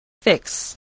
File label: "fix", spelling